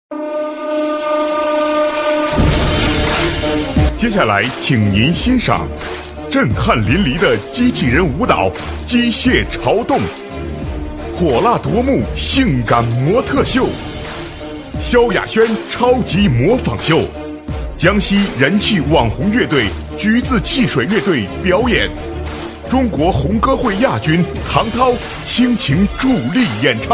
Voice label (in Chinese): 男 开场 节目单